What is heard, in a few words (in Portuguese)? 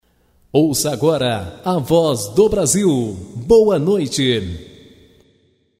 vinheta a voz do brasil boa noite